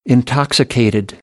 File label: "intoxicated", American English